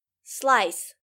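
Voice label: "slice", English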